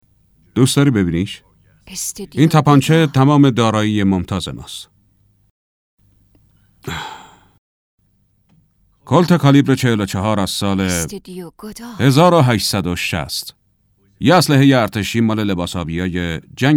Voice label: dub